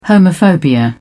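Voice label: "homophobia", British English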